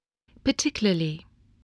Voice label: "particularly", English, voice

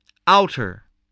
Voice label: "outer", English voice

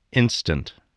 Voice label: "instant", voice spelling

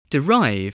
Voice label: "derive", English

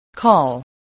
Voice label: "call", spelling

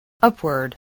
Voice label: "upward", English

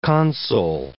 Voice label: "console", English

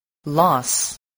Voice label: "loss", spelling

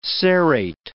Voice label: "serrate", English

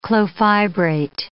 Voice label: "clofibrate", English

